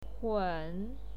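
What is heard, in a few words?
"hun", Mandarin Chinese pinyin, third tone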